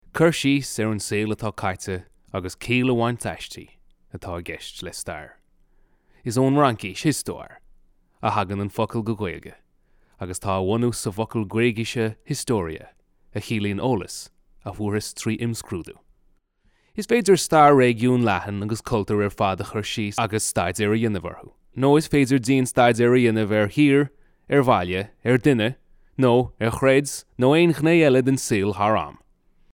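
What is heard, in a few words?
Gaelic, male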